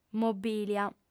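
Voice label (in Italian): "mobìlia", lingua sarda, fem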